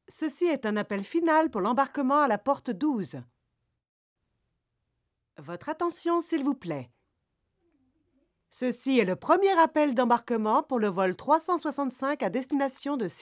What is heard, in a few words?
Airport Announcer